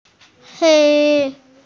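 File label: "hei1", Chinese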